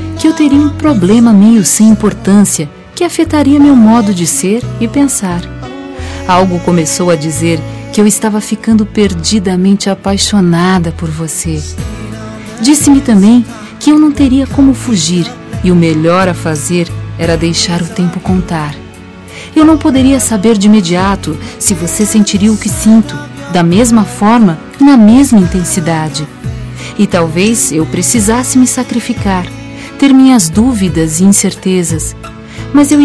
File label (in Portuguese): ROM AMIZADE QUE VIROU PAIXAO FEM